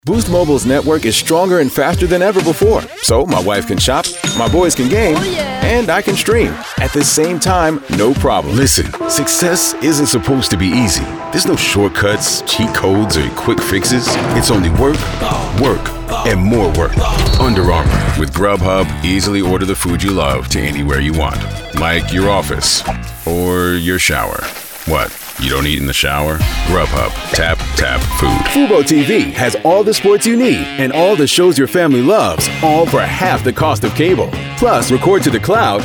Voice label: Commercial